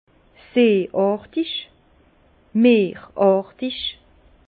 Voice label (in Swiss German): "seeartig", Bas Rhin; vin; marin